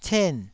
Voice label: "cen4", taiwanese Syllables